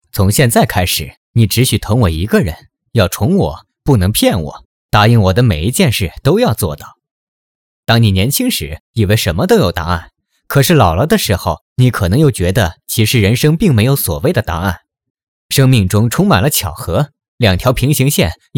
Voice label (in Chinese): 男 译制片样音